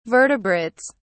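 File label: "vertebrates", British English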